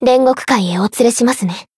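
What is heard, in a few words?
Voice Japanese; maturika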